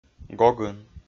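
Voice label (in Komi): "гогын", Komi-Zyrian, male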